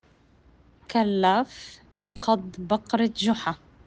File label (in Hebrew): פתגם עממי בערבית מדוברת; كلف قد بقرة جحا; כַּלַפ קַד בַּקַרַת גֻ׳חַא; עלה כמו הפרה של ג׳וחא; פתגם #5 – כמה עולה פרה?